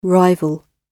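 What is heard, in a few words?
"rival", British English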